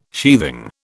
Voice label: "sheathing", British

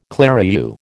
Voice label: "clerihew", American

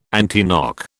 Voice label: "antiknock", British

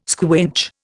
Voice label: "squinch", Indian

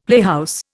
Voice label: "playhouse", Indian; Dictionary

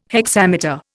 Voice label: "hexameter", Indian

